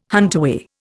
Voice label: "huntaway", Indian